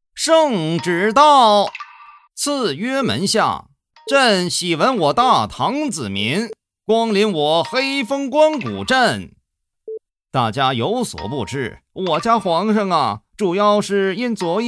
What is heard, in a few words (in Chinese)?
C男 角色 太监样音